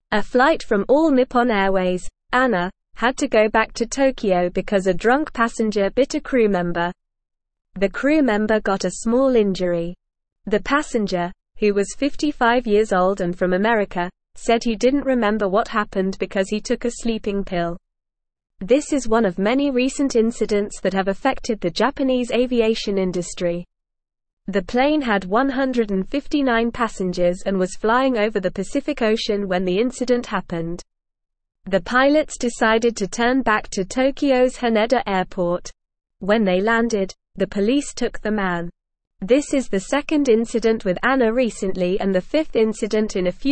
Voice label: English Upper Intermediate NORMAL Reading; English Newsroom; US bound ANA flight forced to return after drunk